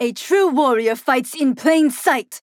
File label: Taunt Directed; Flak; Skye; Paladins